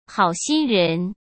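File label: "好心人", Mandarin Chinese; chinese flashcards; hsk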